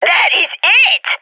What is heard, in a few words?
cheer; Counter Strike Model Pack; CS:GO